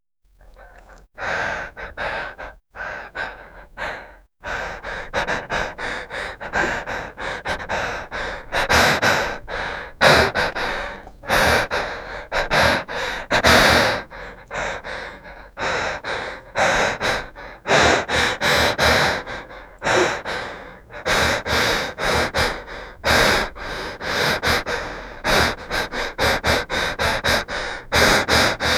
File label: 18 years old young man